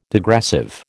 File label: "degressive", American